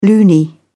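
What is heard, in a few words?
"loony", British English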